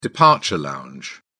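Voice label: "departure lounge", British English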